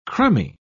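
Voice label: "crumby", British English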